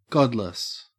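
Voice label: "godless", Australian English; pronunciations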